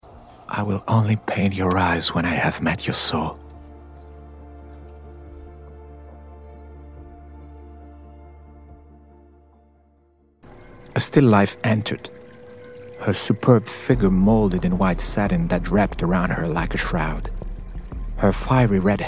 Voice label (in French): voix en anglais